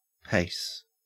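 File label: "pace", Australian English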